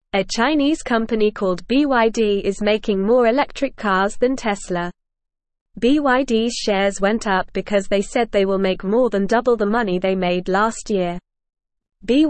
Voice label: English Beginner NORMAL Reading; Chinese Company BYD Makes More Electric Cars than Tesla